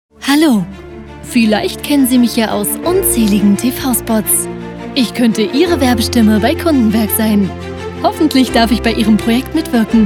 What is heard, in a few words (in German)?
Werbestimme Weiblich